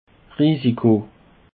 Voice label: "risiko", Alsace

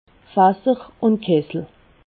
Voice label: "fasser", alsace

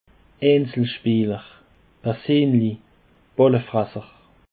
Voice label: "eizelspeeler", Alsace

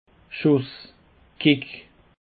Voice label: "schuss", Alsace

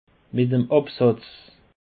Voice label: "absatz", Alsace

